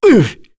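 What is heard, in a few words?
vox get, voices heroes English; Phillop; kings raid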